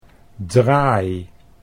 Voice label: "draai", Dutch